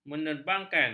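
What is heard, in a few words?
"menerbangkan", Malay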